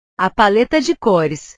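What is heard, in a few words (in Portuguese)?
"a paleta de cores", Portuguese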